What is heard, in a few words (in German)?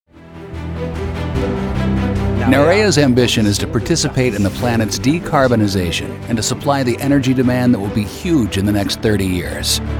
Imagefilme Profi Publikum; NATIVE PROFISPRECHER